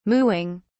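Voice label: "mooing", British English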